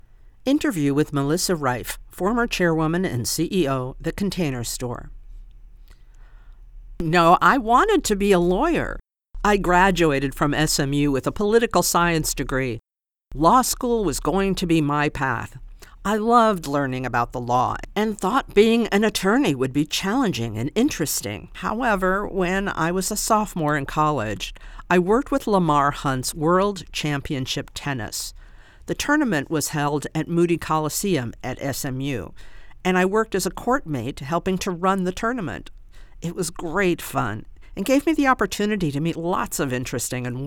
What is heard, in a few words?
Non Fiction How To; Women on Top